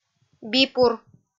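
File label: "бипур", Komi-Zyrian, female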